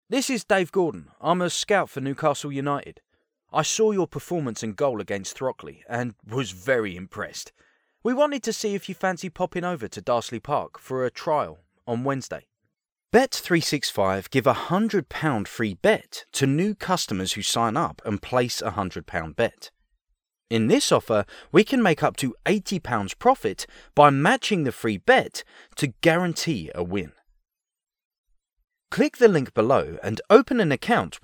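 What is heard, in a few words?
English, male; lektoring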